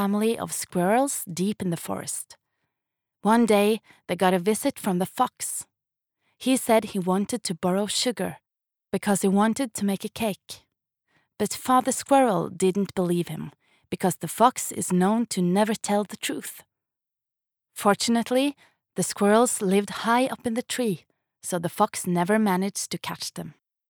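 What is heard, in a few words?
Norwegian english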